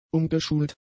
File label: "umgeschult", German; adjectives